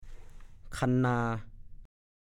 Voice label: "คันนา", ภาษาโคราช; ดูคำว่า คะนา

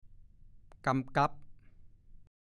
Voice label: "กำกั๊บ", ภาษาโคราช

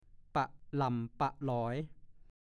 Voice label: "ปะหล่ำปะหลอย", ภาษาโคราช; สูญหายไปทีละเล็กละน้อย เลื่อนลอยไม่มีหลักฐาน

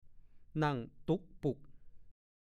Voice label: "นั่งตุ่กปุ่ก", ภาษาโคราช; ลักษณะการนั่งของคนอ้วน

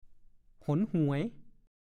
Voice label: "หนหวย", ภาษาโคราช; รำคาญ

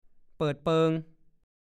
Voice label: "เปิด", ภาษาโคราช; หนี ไป ด้วยอาการเร่งรีบ

